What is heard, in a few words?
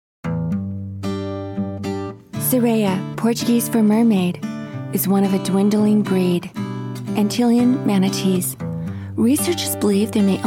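doco demo, Documentary, Voice Actress; Voice Demos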